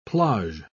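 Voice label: "plage", British English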